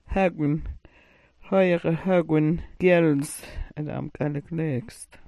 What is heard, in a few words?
"hagwn", smalgyax